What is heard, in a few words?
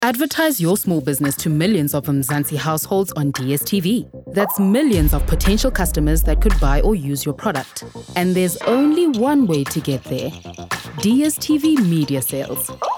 ad; DSTV